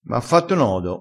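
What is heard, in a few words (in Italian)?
"fa nodo", vocabolario dialettale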